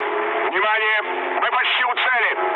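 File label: near waypoint, mood low, Russian; War Thunder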